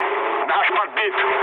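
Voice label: Russian aircraft destroyed mood low, speech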